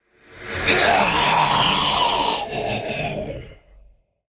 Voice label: Androgynous Faceless Death